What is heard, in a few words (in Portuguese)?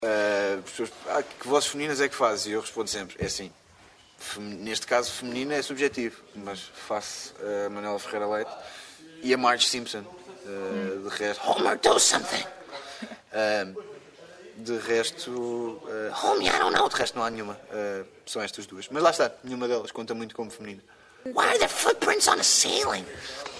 Vozes femininas